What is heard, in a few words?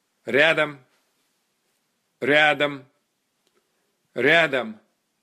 Ryadom, russian dog commands